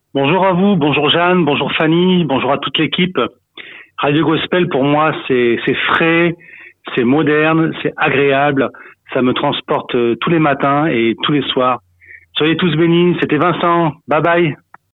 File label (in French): Temoignage sur Radio Gospel